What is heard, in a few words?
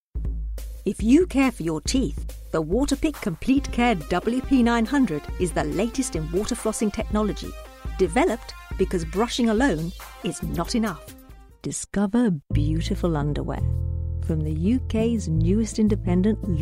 Commercial Voice